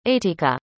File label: "ateeqa", arabic; indonesian boy names